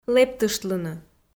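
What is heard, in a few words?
"лэптыштлыны" Komi, female; kpv audio dict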